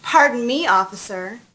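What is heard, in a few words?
pardon me, civilian female; SINDEMO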